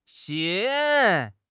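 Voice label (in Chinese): "腥", Chinese; HSK6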